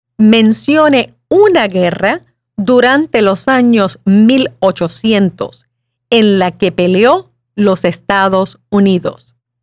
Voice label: Spanish